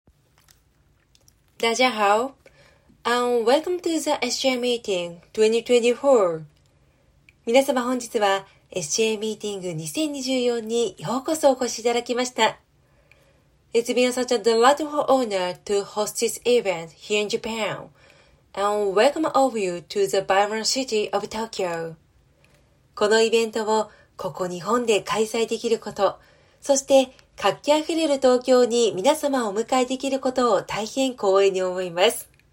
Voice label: 英語挨拶; ナレーター｜MC｜リポーター

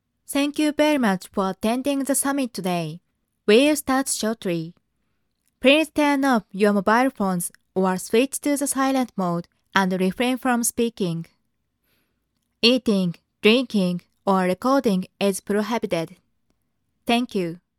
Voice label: 英語 アナウンス; ナレーター｜MC｜リポーター

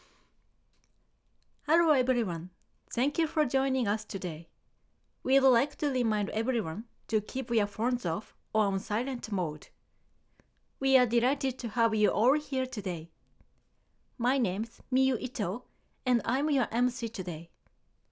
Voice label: 英語NA; ナレーター｜MC

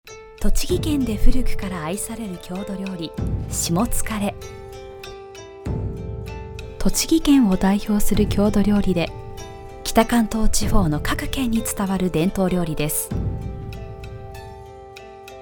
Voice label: 文化紹介（しっとり）; ナレーター｜MC｜リポーター

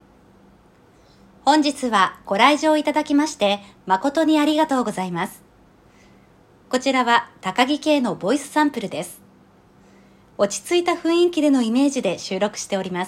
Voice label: ボイスサンプル シック, ナレーター; ナレーター｜MC｜リポーター

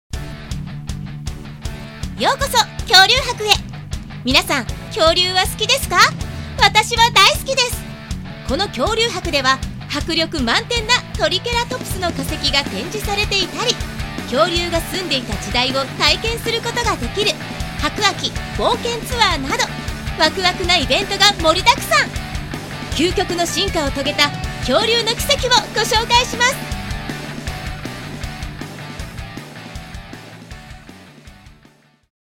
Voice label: イベントMC

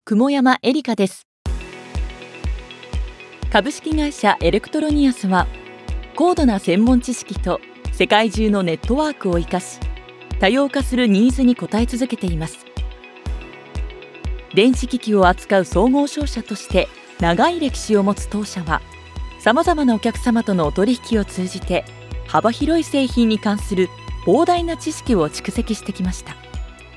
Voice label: 企業紹介; ナレーター｜MC｜リポーター

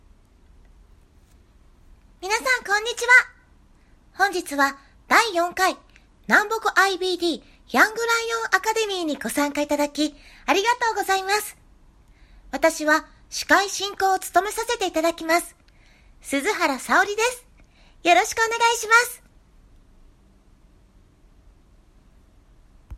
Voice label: アニメ声

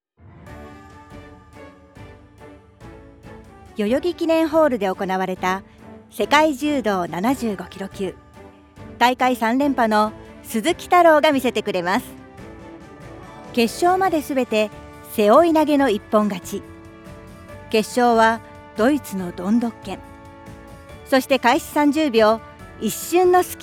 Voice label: ニュース; ナレーター｜MC｜リポーター

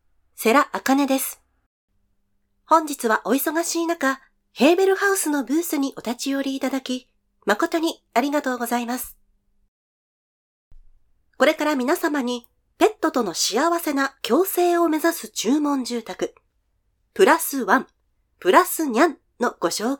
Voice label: 展示会MC

